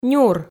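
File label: "нюр", Komi, female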